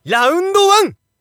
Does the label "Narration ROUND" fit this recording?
Yes